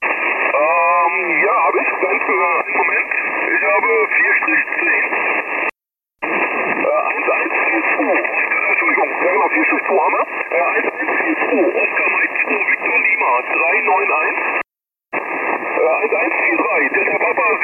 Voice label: ssb, beginner; Training QTCs; QTC list